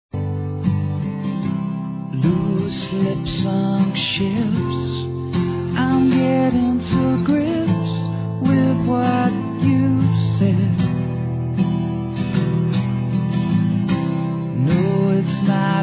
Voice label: ANIV. ROMANTICO M

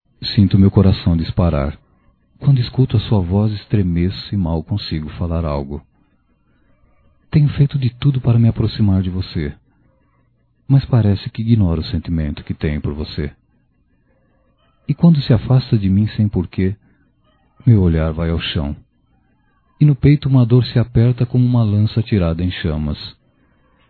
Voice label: Romantica Conquista Masculino, Só Voz; Telemensagens; Telemensagens 2017

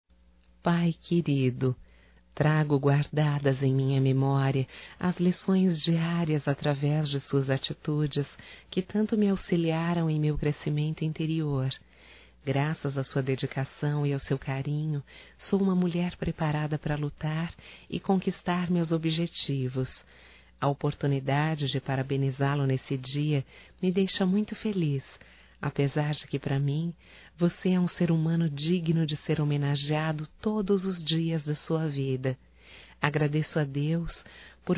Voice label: Aniversário Pai Feminino; Aniversarios; Telemensagens; Telemensagens 2017

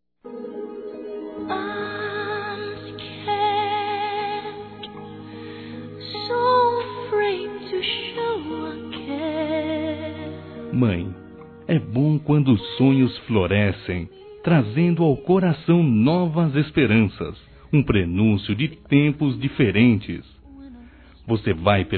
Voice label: Casamento de Mãe, Masc; Telemensagens; Telemensagens 2017

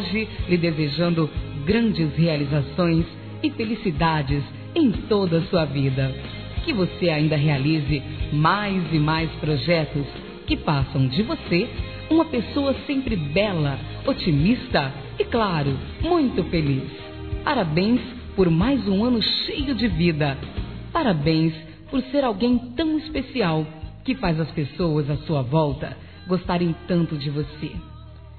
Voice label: Aniversário Atrasado Feminino; Telemensagens 2017; Aniversários; Aniversário atrasado e antecipado

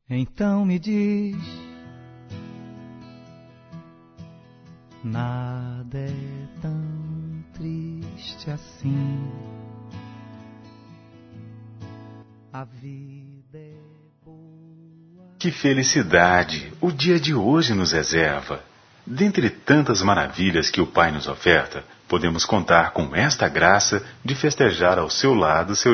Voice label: Aniversário Neutro; Telemensagens 2017; Aniversários; Aniversários diversos

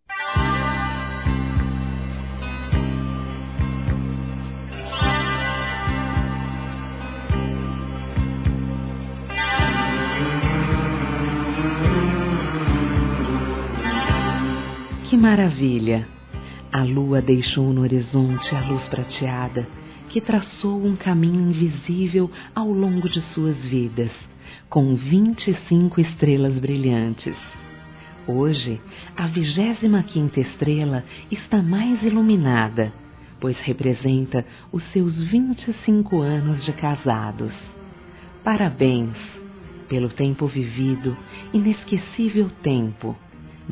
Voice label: BODAS PRATA F, Telemensagens; Bodas e Casamento

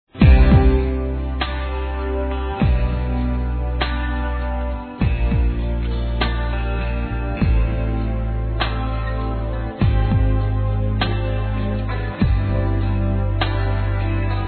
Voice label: ENCONTREI AMIGO M, Telemensagens; Amizade

